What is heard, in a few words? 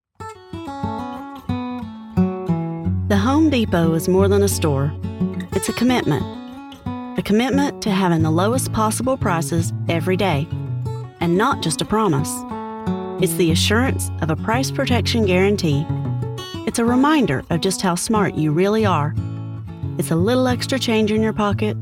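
American English, General, Southern; GoLocalise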